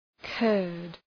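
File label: "Kurd", English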